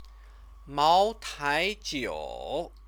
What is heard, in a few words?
"maotaijiu", Chinese; Chinese lessons